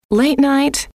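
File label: late night, female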